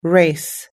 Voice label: "race", us-english